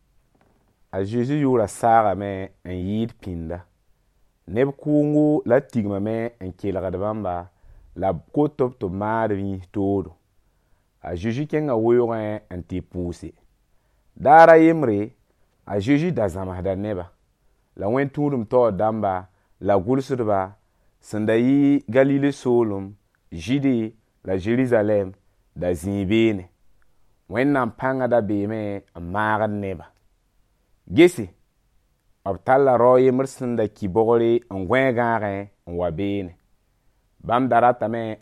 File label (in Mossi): Mossi Moore; Jésus guerrit un paralytique; Lowered through the Roof